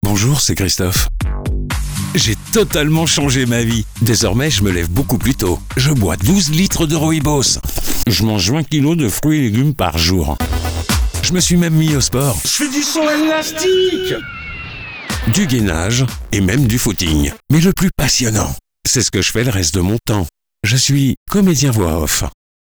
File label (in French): presentation voix off